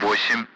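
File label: Speech Russian; Allied Flight; Digits; DCS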